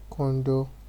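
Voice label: "kondo", Nigerian Pidgin, male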